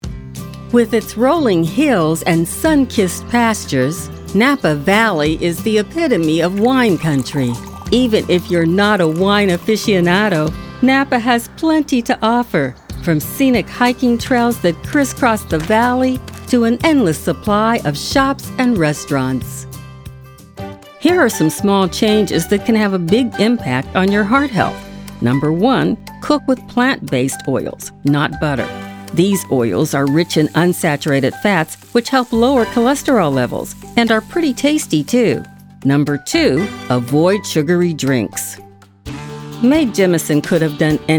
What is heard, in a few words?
Narration